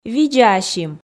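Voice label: "видзаасим", Komi, female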